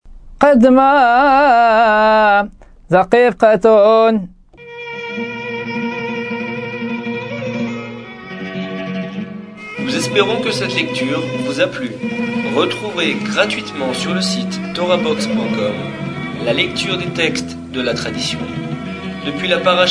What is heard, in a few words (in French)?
apprentissage taamim selon le rite constantinois; paracha